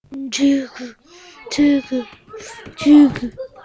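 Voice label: "jig", spoken word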